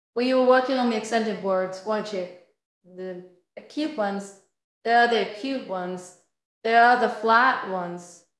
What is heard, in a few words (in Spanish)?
Voice Acting es F0